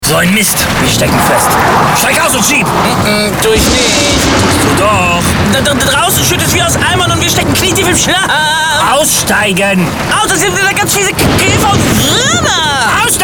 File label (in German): Fashion Mode Radiowerbung